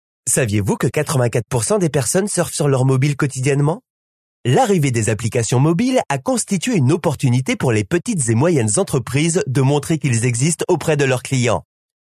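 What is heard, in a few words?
French, male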